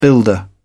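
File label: "builder", British English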